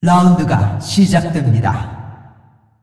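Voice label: announcer female tournaments round start; ko kr; clashvo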